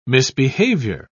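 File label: "misbehaviour", British English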